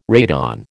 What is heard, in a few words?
"radon", American